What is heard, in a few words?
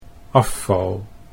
"afval", Dutch